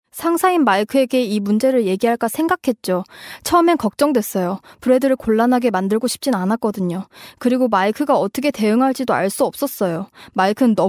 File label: korean